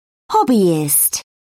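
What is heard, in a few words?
"hobbyist", British English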